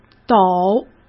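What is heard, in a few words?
"陡", Mandarin Chinese; hsk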